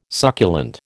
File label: "succulent", American